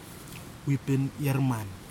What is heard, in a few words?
male, Kwamera; wipin iariman